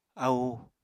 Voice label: "ahu", Apatani

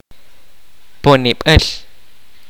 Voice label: kaqchikel; Incense burner

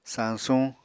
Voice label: "sañsuñ", apatani

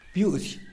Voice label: "byuuzy", tlacochahuaya; heron; animals

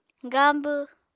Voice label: "gaambw", Apatani

